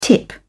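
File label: "tip", British English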